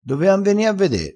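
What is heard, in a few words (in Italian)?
"dovean", vocabolario dialettale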